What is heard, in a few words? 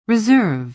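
"reserve", American English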